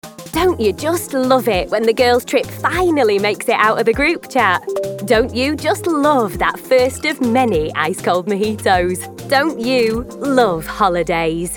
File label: Commercial